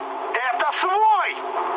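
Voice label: player friendly fire, mood med, Russian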